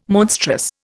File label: "monstrous", Indian